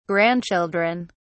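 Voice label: "grandchildren", English, British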